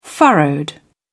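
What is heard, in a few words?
"furrowed", British English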